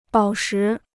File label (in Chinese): "宝石", Chinese